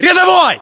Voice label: rank private, ru; localized english